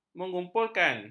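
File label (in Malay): "mengumpulkan", Malay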